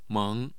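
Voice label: "meng", Mandarin Chinese, tone 2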